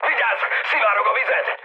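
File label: Hungarian damaged water mood high, speech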